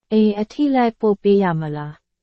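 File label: Burmese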